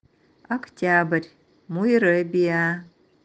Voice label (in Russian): октябрь, нанайский